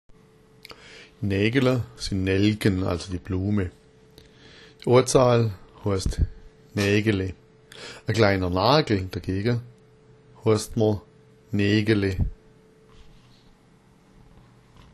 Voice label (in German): naegele, schwaebisch